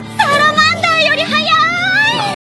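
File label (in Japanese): 女性ボイス「サラマンダーよりはやーい」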